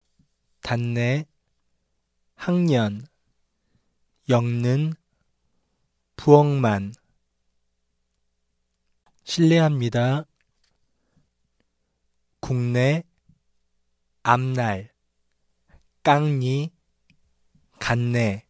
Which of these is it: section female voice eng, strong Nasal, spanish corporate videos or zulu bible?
strong Nasal